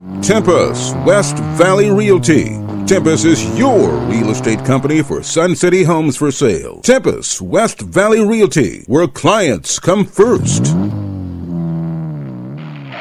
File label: Commercial Spot